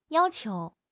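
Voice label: "yao1 qiu2", Mandarin Chinese; HSK3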